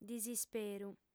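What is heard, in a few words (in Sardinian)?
"disisperu", female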